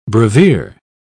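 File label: "brevier", British English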